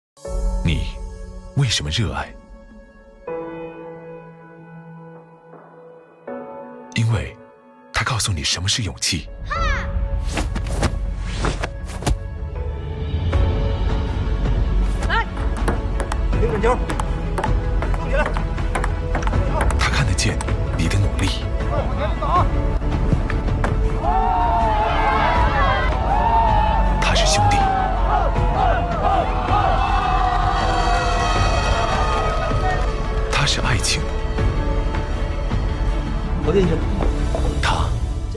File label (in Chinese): 形象片 励志; 腾讯体育为热爱加冕; 公益广告